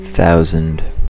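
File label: "thousand", US English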